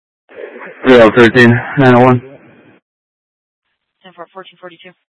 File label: Dispatch; Scottsdale; Sctdal PD Thompson Peak high